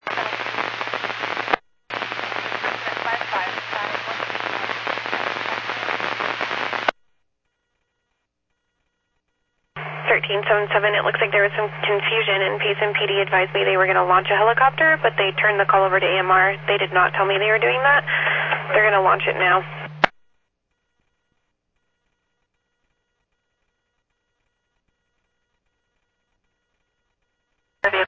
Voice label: Medevac, scanner; PHIAIREVACHelRad